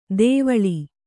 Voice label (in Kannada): "ದೇವಳಿ", Kannada